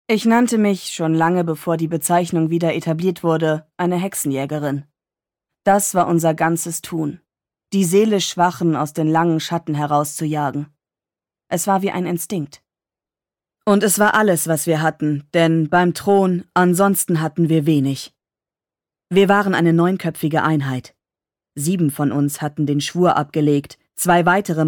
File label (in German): Hoerbuch; Warhammer